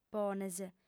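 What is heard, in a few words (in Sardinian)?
"pones", female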